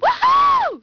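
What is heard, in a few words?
female wow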